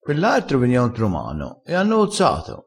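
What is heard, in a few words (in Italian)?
"cozza", dialettale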